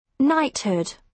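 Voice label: "knighthood", British English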